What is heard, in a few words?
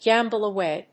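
"gamble away", English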